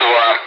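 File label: Speech, Russian, air traffic control; Digits; DCS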